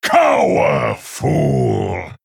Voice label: Male Orc Attack

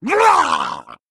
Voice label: Male Murloc Attack

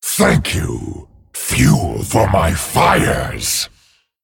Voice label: Male Dragon Thanks

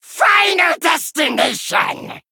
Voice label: Male Vulpera Attack

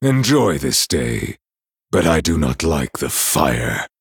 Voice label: Male Night Elf; Fire Festival

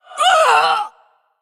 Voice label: Female Dwarf Undead Death

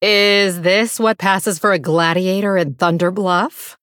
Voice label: Female Blood Elf; Valeera

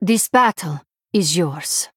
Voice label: Female Draenei Concede

